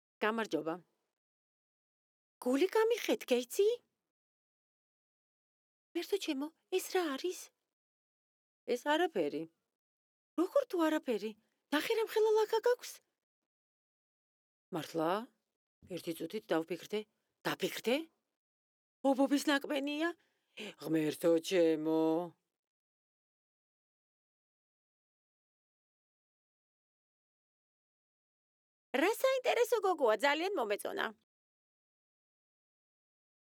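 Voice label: georgian female character; voice demos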